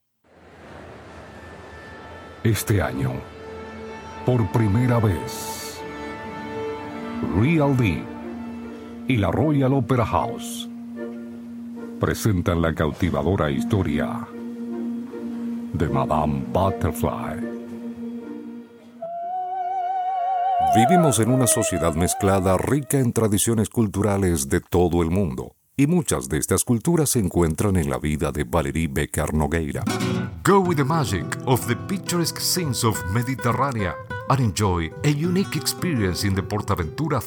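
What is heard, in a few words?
Latin American Spanish; GoLocalise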